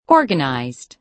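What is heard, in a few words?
"organized", American English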